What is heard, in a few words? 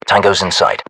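threat infantry exposed, battlechatter, British; english; uk; localized english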